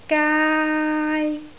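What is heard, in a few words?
"gaai1", Cantonese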